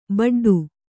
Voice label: "ಬಡ್ಡು", Kannada

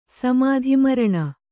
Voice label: "ಸಮಾಧಿಮರಣ", Kannada

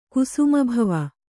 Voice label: "ಕುಸುಮಭವ", Kannada

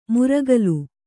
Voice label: "ಮುರಕಲು", Kannada

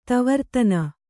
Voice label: "ತವರ್ತನ", Kannada

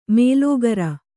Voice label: "ಮೇಲೋಗರ", Kannada

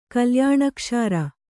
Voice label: "ಕಲ್ಯಾಣಕ್ಷಾರ", Kannada

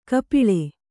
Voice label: "ಕಪೋಳಿ", Kannada

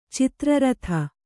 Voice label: "ಚಿತ್ರಾರ್ಧ", Kannada

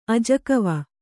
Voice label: "ಅಜಕವ", Kannada

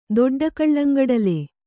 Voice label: "ದೊಡ್ಡಕಳ್ಳಂಗಡಲೆ", Kannada

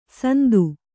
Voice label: "ಸಂದು", kannada

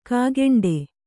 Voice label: "ಕೈಗುಂಟೆ", Kannada

